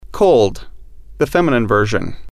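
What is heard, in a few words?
"cold", female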